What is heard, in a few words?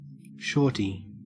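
"shorty", Australian English; pronunciations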